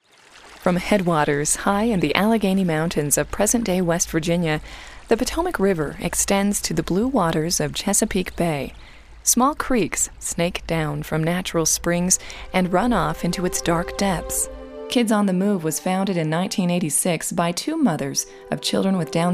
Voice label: Documentary

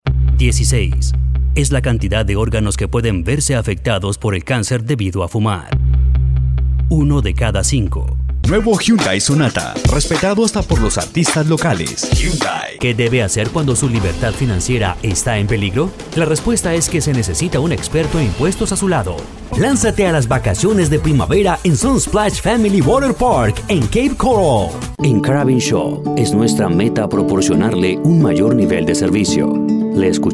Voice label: Spanish Latin America Commercial

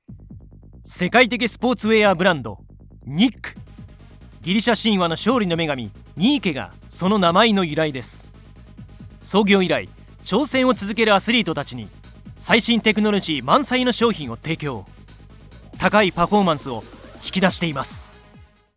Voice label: Corporate

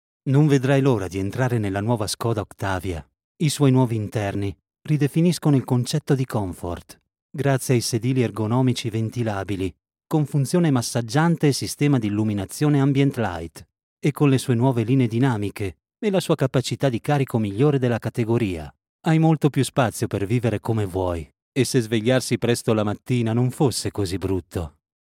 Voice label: ITA TV ADVERTISING; SKODA OCTAVIA

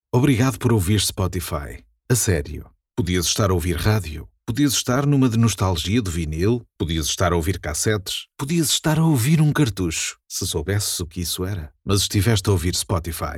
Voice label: promo, Portugal; Spotify

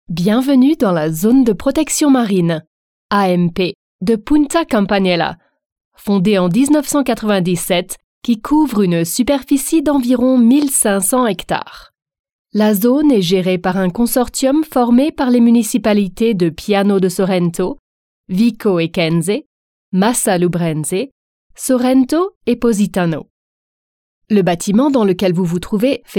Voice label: French Audioguide

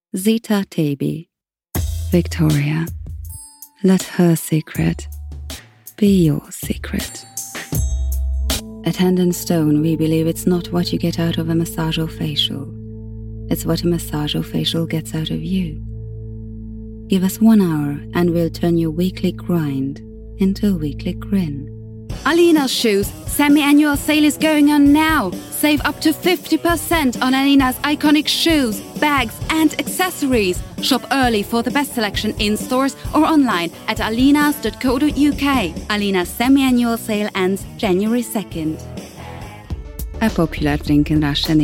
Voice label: Commercial VOICEREEL English

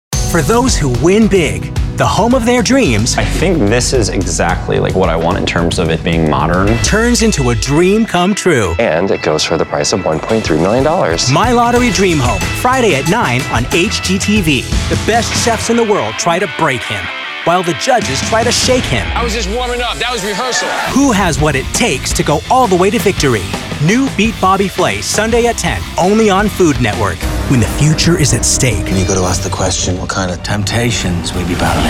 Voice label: Promos

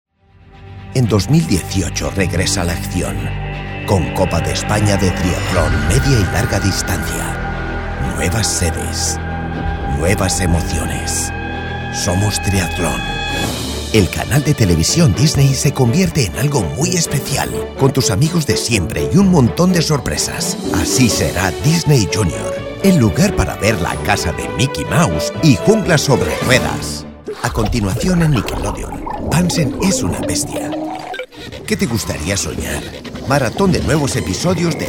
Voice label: CASTILLIAN SPANISH

